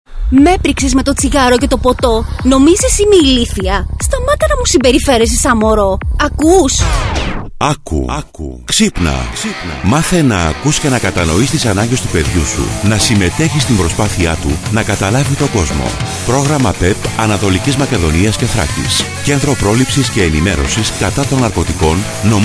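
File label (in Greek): άνδρας, Ραδιοφωνικά spot; Ραδιοφωνικό Spot Νο6; gymnasio; ΚΕΝΤΡΟΥ ΠΡΟΛΗΨΗΣ & ΕΝΗΜΕΡΩΣΗΣ κατά των Ναρκωτικών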